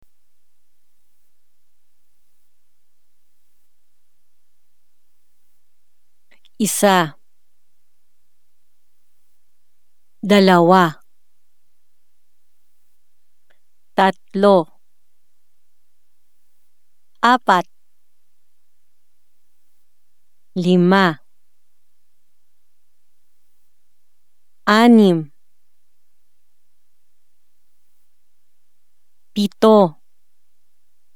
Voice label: filipino